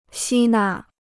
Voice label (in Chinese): "吸纳", Chinese